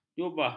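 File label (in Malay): "jubah", Malay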